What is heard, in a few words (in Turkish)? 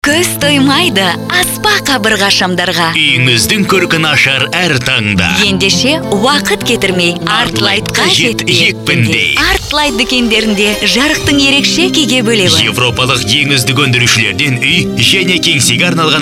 Kazakca Kadin, seslendirme; Kadın Ses